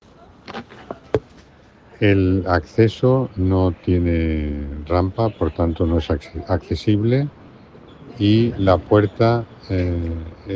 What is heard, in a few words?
Voice message